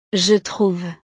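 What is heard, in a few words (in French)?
"je trouve", French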